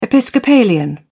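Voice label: "episcopalian", British English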